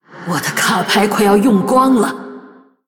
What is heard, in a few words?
Female Blood Elf Low Cards, zh; 虚空之影瓦莉拉; Valeera Deathknight